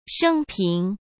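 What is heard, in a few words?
"生平", Mandarin Chinese; chinese-flashcards; hsk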